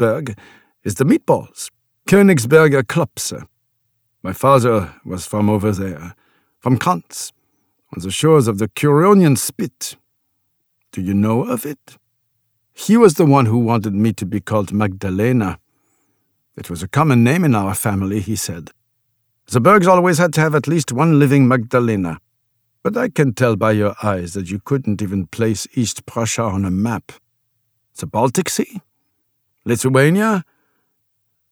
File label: male french canadian english with german accent; the american fiance e audiobook